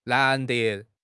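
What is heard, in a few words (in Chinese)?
"难得", Chinese; HSK6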